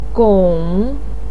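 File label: "gong" third tone, chinese, voice pinyin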